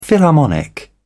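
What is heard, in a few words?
"philharmonic", British English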